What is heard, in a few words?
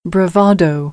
"bravado", American; English; oʊ - əʊ